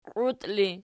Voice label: "qiut", Archi

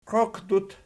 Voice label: "qioqdut", Archi

